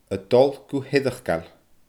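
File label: "a dol gu h eireachdail", Scottish Gaelic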